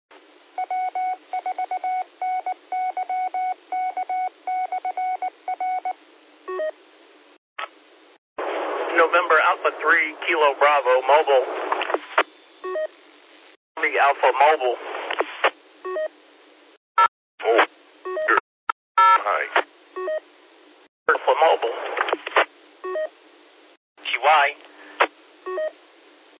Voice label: qso rec simplex logic